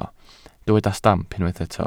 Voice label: "stamp", welsh